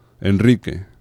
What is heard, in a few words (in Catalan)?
"enrique", vibrants; laboratori fonetica